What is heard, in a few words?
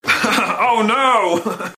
oh no sarcastic